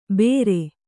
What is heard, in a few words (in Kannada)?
"ಬೇರೆ", Kannada